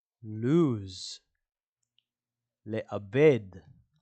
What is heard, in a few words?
"lose" – "לאבד"